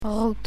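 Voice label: "roet", Dutch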